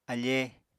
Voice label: "afee", apatani

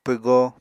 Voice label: "pwgo", Apatani

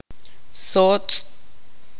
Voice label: tzutujil; murci lago

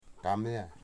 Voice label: "meat", Wamut

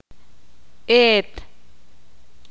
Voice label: "ayer", Ixil

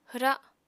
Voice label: "hwra", Apatani

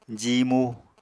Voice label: "jiimu", Apatani

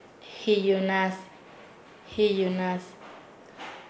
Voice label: Nasa Yuwe; entenderse; paez

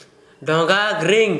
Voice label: "ɖoŋga griŋ", Gta, Dialect: Hill; feral cat

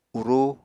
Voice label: "urú", Apatani

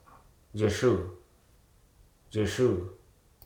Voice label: "influenza", Hruso

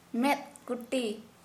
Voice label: Santali eyebrow

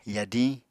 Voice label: "yadiñ", Apatani

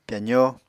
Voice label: "pyazó", Apatani

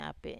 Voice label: "ape i", Wamesa

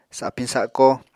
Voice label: "sapiñ sako", Apatani

